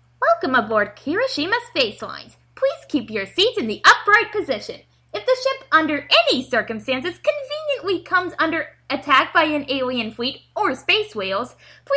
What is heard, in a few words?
female extra line